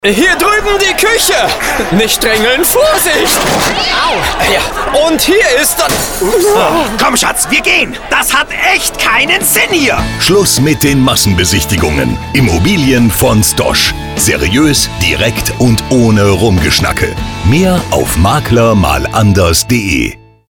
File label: Spot; Immonuance